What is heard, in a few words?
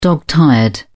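"dog tired", British English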